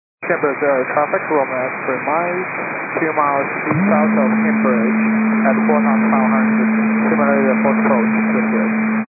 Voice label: 122.925 MHz; scanner radio